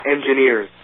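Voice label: "engineers", US English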